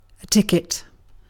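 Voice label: "ticket" English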